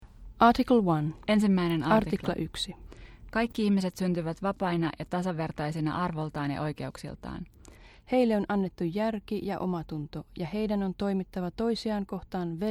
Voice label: Finnish duo